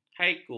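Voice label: "haiku", Malay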